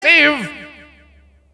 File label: impressive, announcer; tf2cciv; open fortress